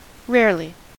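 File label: "rarely", American English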